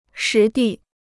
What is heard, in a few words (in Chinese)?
"实地", Chinese